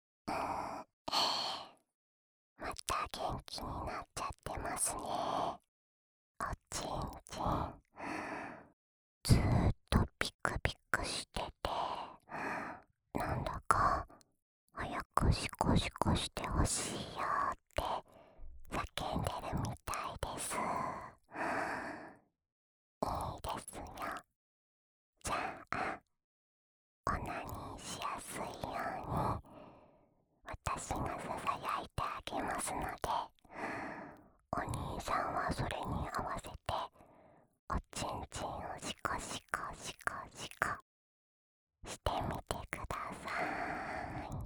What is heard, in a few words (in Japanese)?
しこしこボイスループ（右耳）, ASMR; オナサポ用